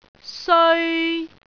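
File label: "seoi3", Cantonese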